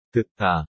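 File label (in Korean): "듣다", Korean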